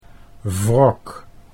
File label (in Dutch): "wrok", Dutch